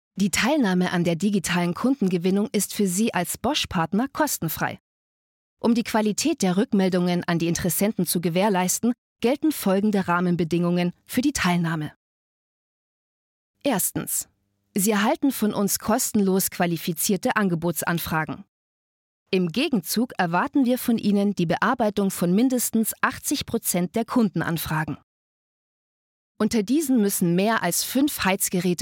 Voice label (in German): E-Learning; Bosch